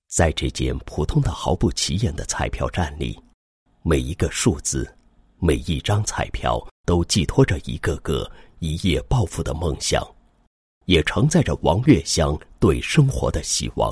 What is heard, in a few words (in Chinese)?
男 人物 残疾人王某创业实录, 配音案例; 澄海区莲下镇